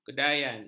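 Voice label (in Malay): "kedayan", Malay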